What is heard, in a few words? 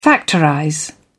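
"factorize", British English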